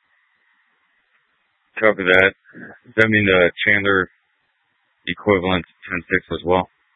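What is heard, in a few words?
Tempe police department, scanner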